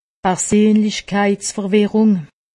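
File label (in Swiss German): "perseenlichkeitverwirrung", Haut-Rhin; medecine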